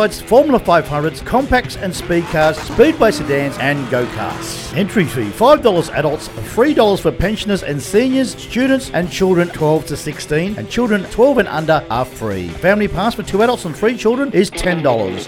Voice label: Motorsport Park Advertisement; Illabo Motorsport Park; TEM FM 102.5